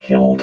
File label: "killed", robot